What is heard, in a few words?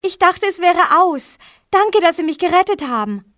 thank, civ fmale; Soldier Of Fortune II Double Helix; XBOX